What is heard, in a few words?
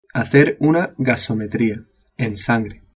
"gasometria", spanish